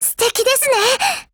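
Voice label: Japanese; CETME 5.56; appreciate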